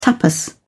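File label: "tapas", British English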